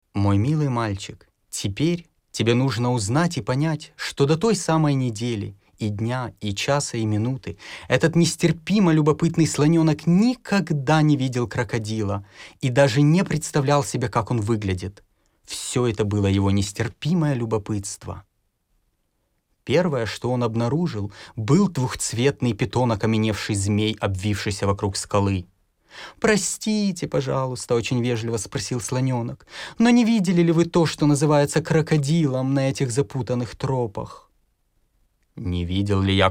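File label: Russian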